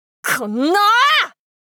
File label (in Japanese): こっの～！！ 少女×低音域